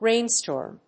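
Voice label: "rainstorm", English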